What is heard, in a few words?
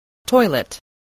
"toilet", spelling